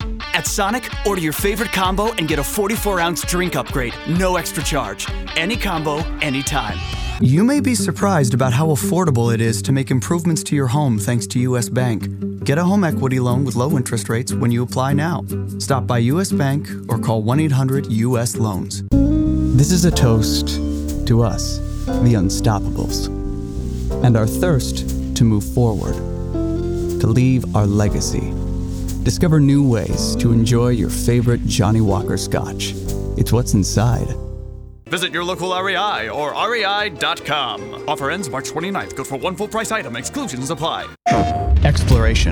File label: Commercial